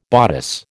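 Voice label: "bodice", American English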